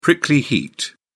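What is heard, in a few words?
"prickly heat", British English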